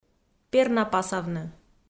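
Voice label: "пернапасавны", Komi, female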